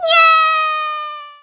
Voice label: NOOO, Speech, Polish; Worms Armageddon

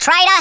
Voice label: "TRAITOR", Australian; Worms Armageddon

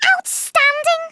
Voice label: "excellent", Scouser

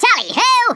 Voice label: "incoming", Stiff Upper Lip

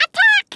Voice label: "fireball", Brooklyn; Worms Armageddon

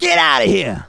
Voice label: "GO AWAY", Speech, Soul Man

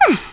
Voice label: "boring", Brooklyn; Worms Armageddon

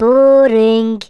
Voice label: "boring", Brummie; Worms Armageddon

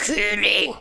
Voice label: "coward", Danish; Worms Armageddon; Danish

